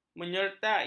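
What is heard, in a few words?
"menyertai", Malay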